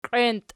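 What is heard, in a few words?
"qienti" nominative, Archi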